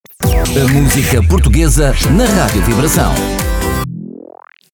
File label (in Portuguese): Radio Vibracao